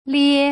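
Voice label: "lie", Putonghua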